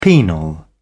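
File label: "penal", English, British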